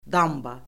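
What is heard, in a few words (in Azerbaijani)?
"damba", Azerbaijani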